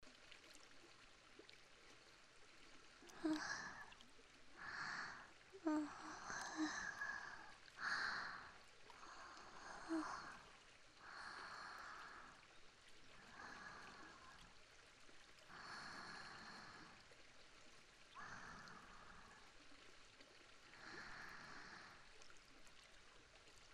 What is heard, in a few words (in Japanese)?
添い寝, BGMなし; もっと優しくしてよ…